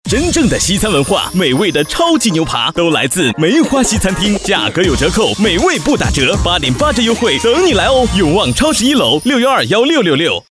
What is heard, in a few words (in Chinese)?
男声 浑厚大气 广告 西餐厅; 国语男声配音; 雨花西餐厅